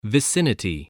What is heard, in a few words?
"vicinity", English